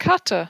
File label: "kata" longer